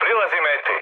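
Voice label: Serbian near waypoint mood med; speech; War Thunder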